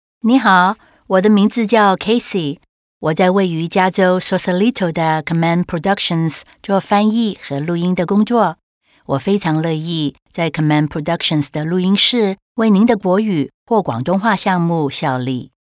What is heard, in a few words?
Mandarin